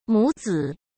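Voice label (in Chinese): "母子", Mandarin Chinese; chinese flashcards; hsk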